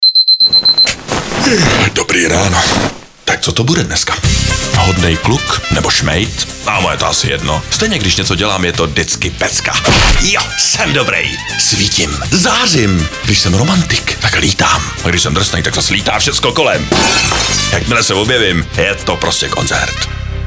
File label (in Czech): radio, CZ; Nescafe Classic